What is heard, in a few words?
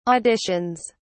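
"auditions", British English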